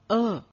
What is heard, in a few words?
airhaa mukta, punjabi; muharni